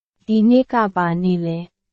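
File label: Burmese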